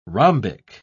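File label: "rhombic", British English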